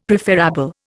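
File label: "preferable", Indian; Dictionary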